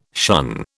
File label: "shun", British